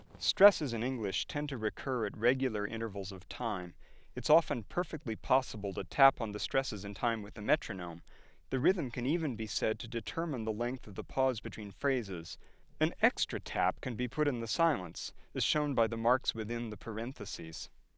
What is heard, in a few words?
stresses in English